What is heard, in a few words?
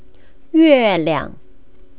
"yueliang", Chinese; Chinese-English Bilingual Conversation